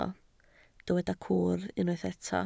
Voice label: "cwr", Welsh